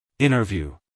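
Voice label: "interview", American English, male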